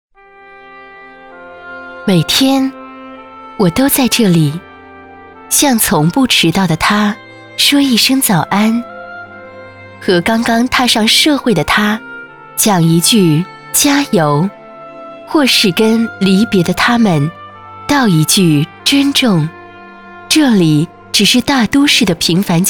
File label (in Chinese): 女国 广告 互联网 地铁篇 亲切, 甜美活力广告配音; 方正IT